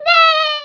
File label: "Nooo", Dutch; Speech; International; 11khz